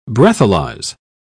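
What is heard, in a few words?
"breathalyze", British English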